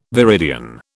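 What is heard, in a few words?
"viridian", British English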